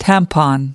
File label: "tampon", us